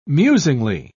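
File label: "musingly", British English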